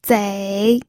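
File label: "zei3", Mandarin Chinese pinyin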